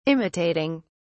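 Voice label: "imitating", British English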